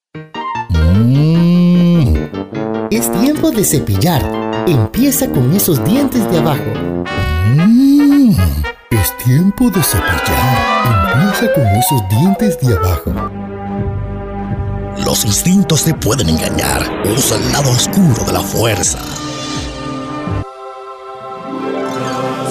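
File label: characters Spanish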